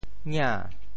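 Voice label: "ngia2", Teochew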